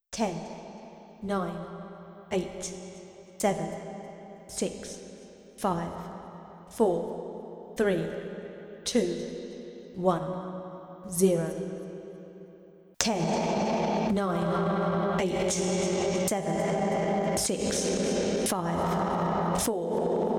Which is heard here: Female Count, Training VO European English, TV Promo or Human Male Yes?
Female Count